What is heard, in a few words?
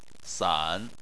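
"san" tone 3, Chinese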